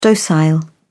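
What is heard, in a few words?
"docile", British English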